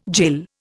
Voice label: "jill", Indian